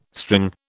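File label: "string", British English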